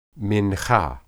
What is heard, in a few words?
"minhah", Hebrew